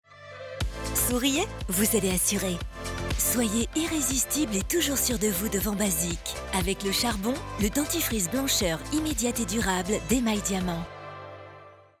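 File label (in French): souriante et complice, Voix off; DIAMANT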